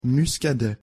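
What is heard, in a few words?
pronunciation french muscadet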